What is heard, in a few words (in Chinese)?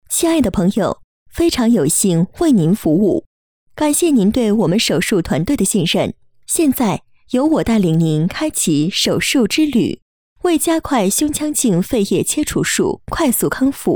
女 提示音类; 手术之旅